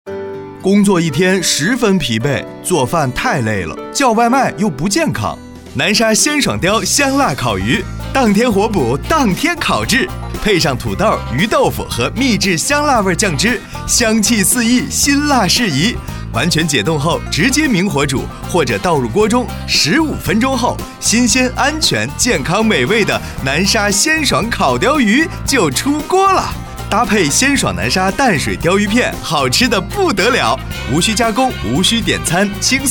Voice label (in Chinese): 广告香辣烤鱼